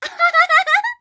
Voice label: voice Fun; lucy